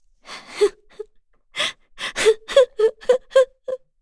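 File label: Vox Sad, Korean; FreyB; kingsraid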